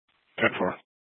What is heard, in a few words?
PD Dispatch